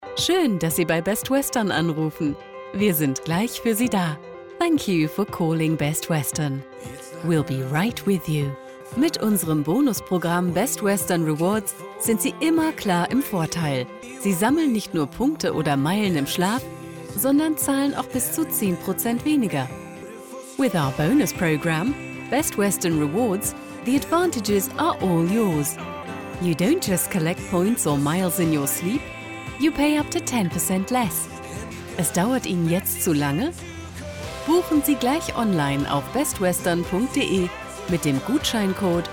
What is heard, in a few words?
DE EN Telefonschleife; Best Western; LOFT VOICES